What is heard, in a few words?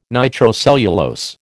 "nitrocellulose", American